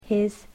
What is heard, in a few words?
"his", english